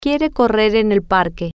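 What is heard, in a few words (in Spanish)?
Quiere Correr En Parque, spanish, female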